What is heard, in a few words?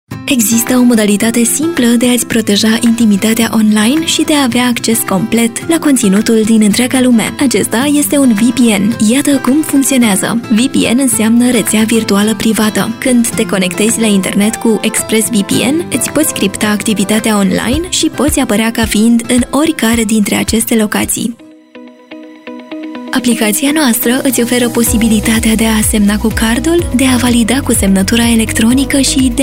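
romanian narration, Mujer; Narración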